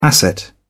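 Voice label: "asset", British English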